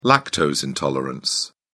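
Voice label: "lactose intolerance", British English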